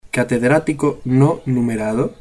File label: "catedratico", spanish